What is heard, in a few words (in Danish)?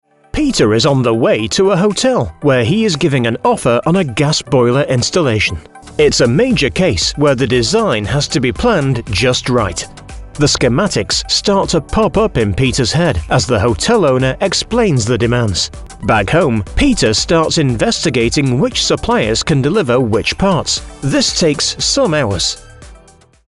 Britisk